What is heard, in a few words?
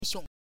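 "xiong5", Chinese; 姊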